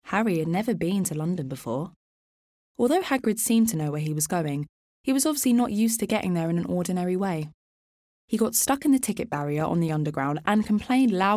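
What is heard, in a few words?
English UK, Narrative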